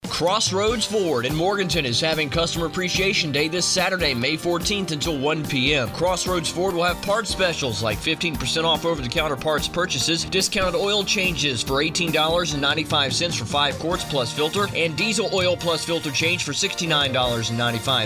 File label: radio commercial; Crossroads Ford